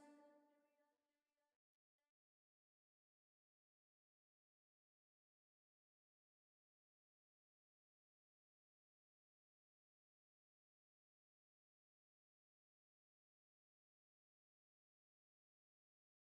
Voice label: MS Vox Main, Mysterious